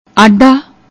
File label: "addda", Punjabi